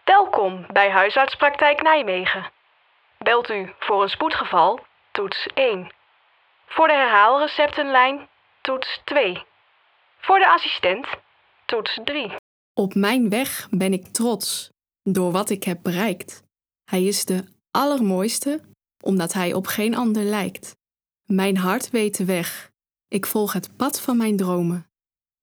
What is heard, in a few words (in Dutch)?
voicemail en gedicht